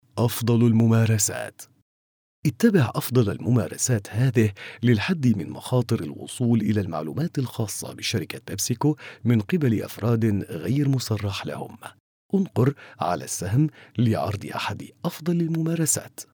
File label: arabic e-learning